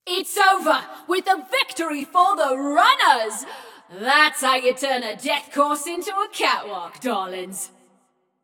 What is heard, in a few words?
Runners Win, Announcer; Flux; Scraprun